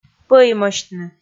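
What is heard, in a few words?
"пӧимӧсьтны", Komi, female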